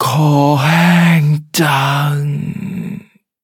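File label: hit, Thai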